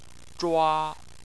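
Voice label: "zhua" Chinese, first tone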